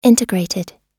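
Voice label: "integrated", US English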